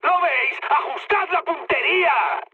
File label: player friendly fire, mood high, speech; War Thunder